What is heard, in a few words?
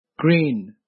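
"crane", Nepali; grade4; vertebrates invertebrates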